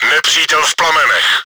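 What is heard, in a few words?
enemy fire started by player, чешской озвучки; Nepřítel v plamenech.; Czech; Враг в огне.; World of Tanks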